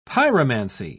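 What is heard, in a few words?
"pyromancy", British English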